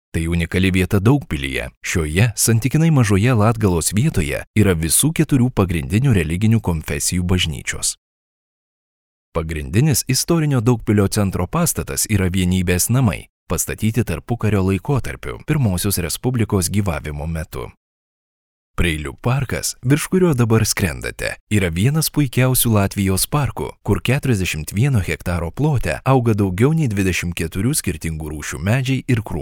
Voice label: Lithuanian Audioguide